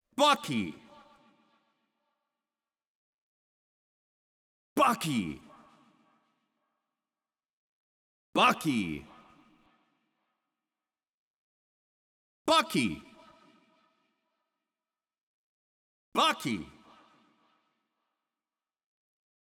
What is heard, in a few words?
VO FX; BUCKY